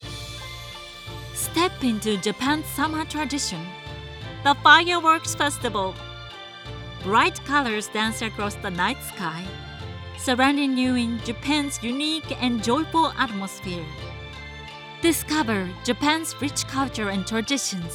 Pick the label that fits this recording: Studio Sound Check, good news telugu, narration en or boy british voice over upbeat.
narration en